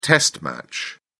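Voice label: "test match", English, British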